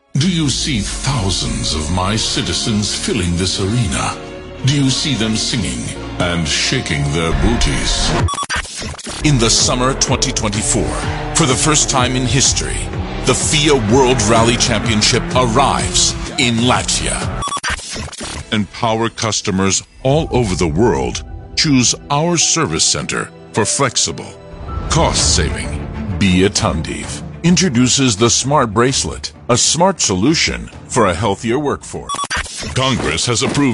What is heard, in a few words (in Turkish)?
erkek amerikan aksani ingilizce seslendirme